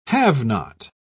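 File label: "have-not", English, uk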